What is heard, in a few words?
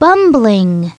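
"bumbling", English; SoftKey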